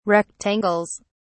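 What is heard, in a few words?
"rectangles", British English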